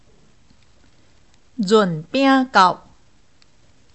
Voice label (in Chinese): 潤餅 kauh, 閩南語; 國小; 清明節